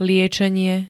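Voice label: "liečenie", Slovak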